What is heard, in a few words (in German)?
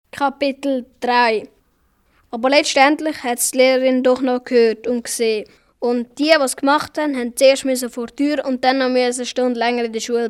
Hoerspiel Zickenkrieg; Minutengeschichten